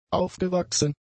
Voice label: "aufgewachsen", German; adjectives

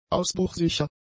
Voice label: "ausbruchsicher", German; adjectives

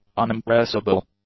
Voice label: "unimpressible", English; adjectives

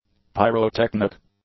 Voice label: "pyrotechnic", English; adjectives

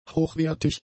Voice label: "hochwertig", German; adjectives

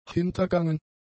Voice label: "hintergangen", German; adjectives

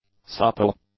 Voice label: "supple", English; adjectives

